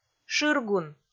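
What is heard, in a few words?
"шыргун", Komi, female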